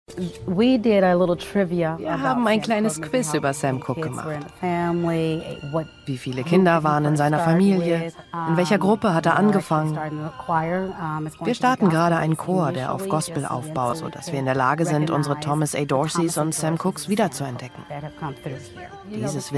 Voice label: overvoicing doku

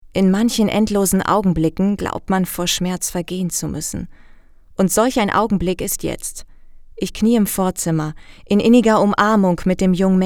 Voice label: liest Buchtext

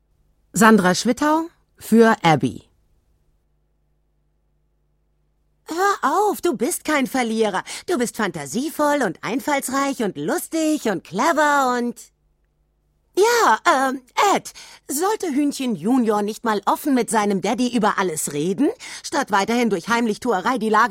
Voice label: Trickstimme

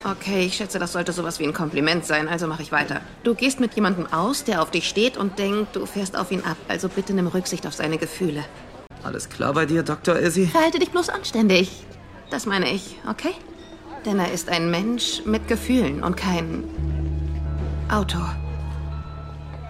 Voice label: Synchron ruhig; Izzy Staffel 5

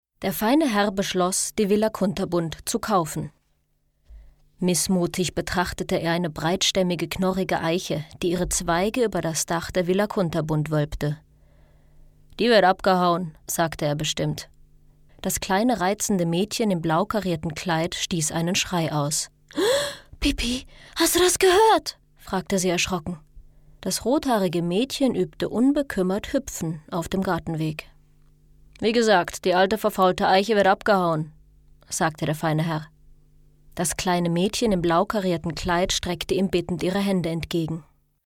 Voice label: erzaehlung

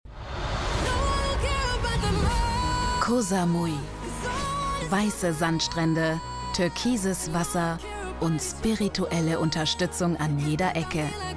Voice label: DE KOMMENTAR; Princess Charming

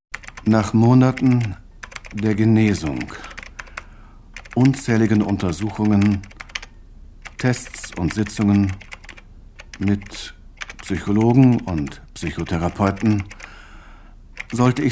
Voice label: hoerspiel; unsterblich

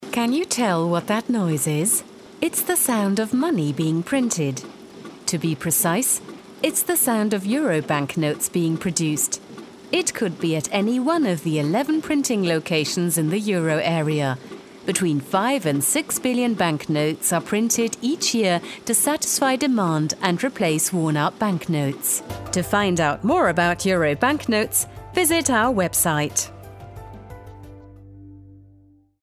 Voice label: EN Erklärfilm; Europäische Zentralbank

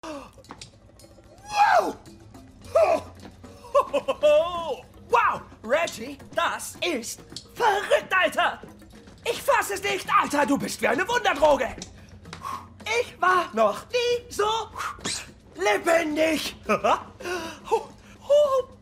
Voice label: Comedy; Freudenrausch

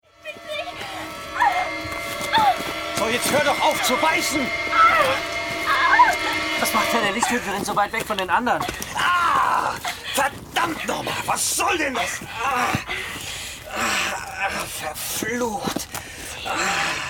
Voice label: hoerspiel drama dialog; hueterin des lichts; biss

